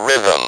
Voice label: speak and spell RHYTHM; WORDS

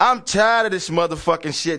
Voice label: tired of this mo fo shit, MALE SPOKEN WORD; MALE MISC

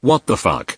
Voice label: male vox what the fuck; MALE MISC

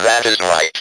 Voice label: speak and spell, "that is right"

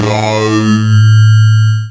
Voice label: robot vox die

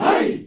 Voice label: "hie", Voice; single hits

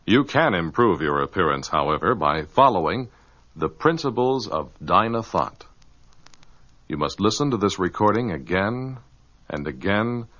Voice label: listen again and again, male spoken word; MALE MISC

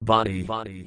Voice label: "body", robotic or stuttery or spooky

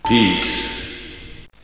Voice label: "peace" reverbed, male; MALE MISC

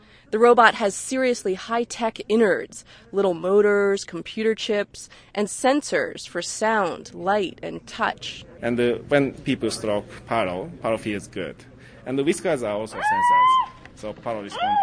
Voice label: robotic animals interview; Commercials and Radio; whiskers

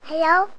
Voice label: baby hello, Children

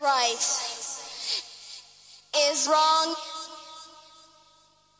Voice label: female right is wrong; FEMALE MISC

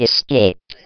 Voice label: "escape", VIDEO GAMES; wow

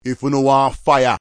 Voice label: if you nowah fire, Rastafarian

